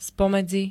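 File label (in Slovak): "spomedzi", Slovak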